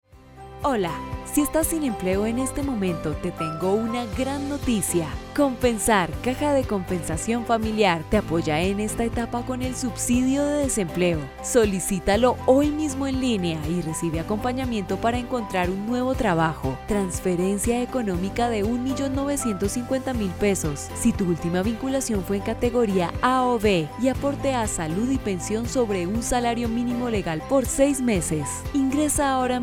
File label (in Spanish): locución contestadoras